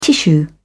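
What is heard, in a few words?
"tissue", British English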